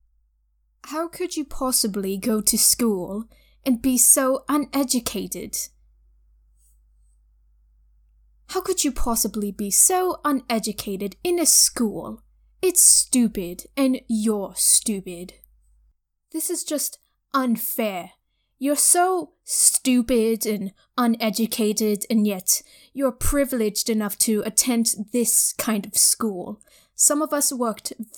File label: British Accent Example